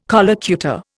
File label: "collocutor", Indian